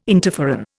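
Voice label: "interferon", Indian English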